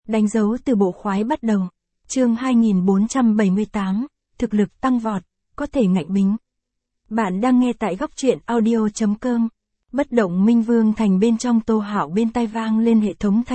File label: vi-VN Standard; danh dau tu bo khoai bat dau